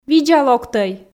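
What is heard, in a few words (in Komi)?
"видза локтӧй", Komi, female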